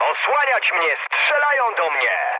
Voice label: aircraft damaged mood med, speech pol

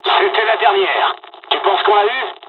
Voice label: dialog, dialogs french; War Thunder; midway

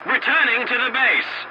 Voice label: voice message return to base, english; War Thunder

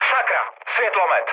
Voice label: searchlite, speech cze, mood low; War Thunder

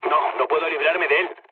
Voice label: aircraft damaged, mood low, speech; War Thunder

